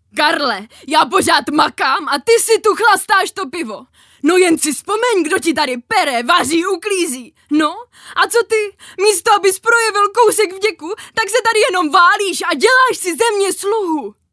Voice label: Hraná postava, Ženský voiceover do reklamy; chytlavy zensky voiceover; příjemný ženský hlas

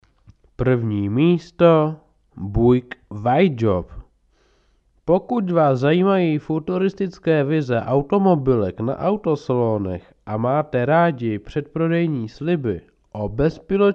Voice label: profesionalni muzsky hlas

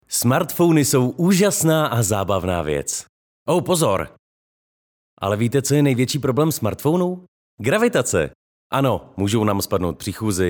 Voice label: profesionalni hlas

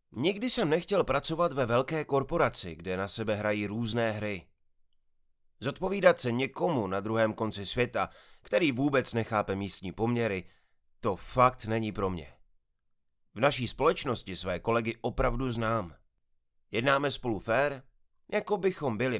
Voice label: muzsky hlas voiceover

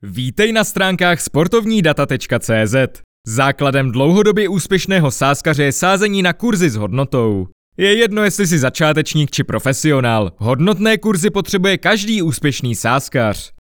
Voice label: Reklama, muzsky voiceover

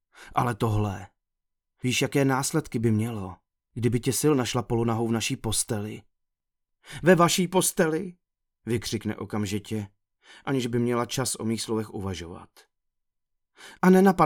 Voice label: Audiokniha; cesky voiceover

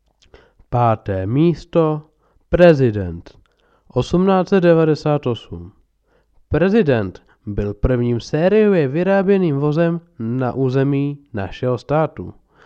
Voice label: profesionalni muzsky hlas

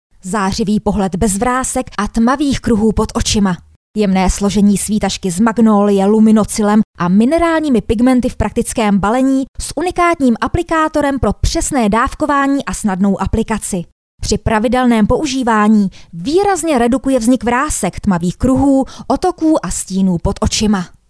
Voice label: krem, zensky voiceover; profesionální ženský voiceover

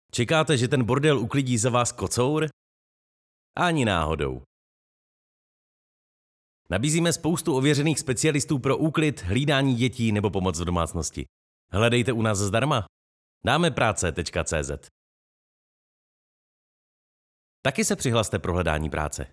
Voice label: Profesionální mužský hlas

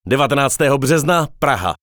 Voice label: Profesionální mužský hlas

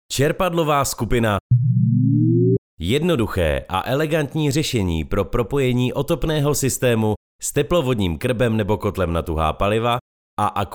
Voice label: Profesionální mužský hlas

